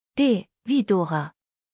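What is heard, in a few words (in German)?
"dora", German; buchstabierung alt; D wie Dora; Buchstabieren Sie bitte!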